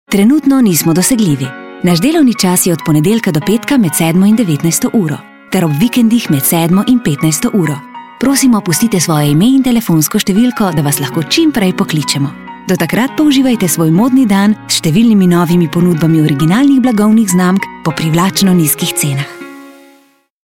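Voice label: slovenian female commercial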